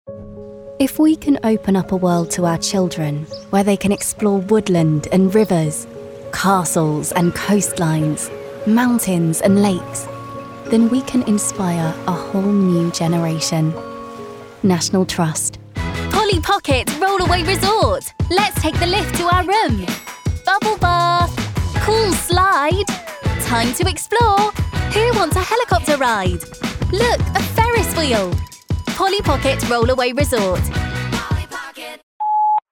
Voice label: Commercial; English (British)